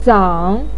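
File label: "zang3" Chinese syllable, pinyin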